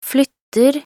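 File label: "flytter", Norwegian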